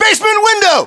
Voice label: US location basement window, battlechatter, english